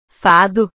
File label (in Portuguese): "fado", portugues